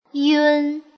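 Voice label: "yun", Chinese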